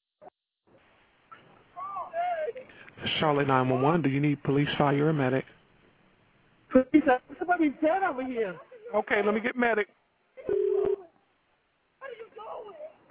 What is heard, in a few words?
911 Call